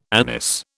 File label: "anise", British